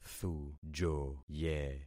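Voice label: "thujoye", concatenated syllables; Affixing experiment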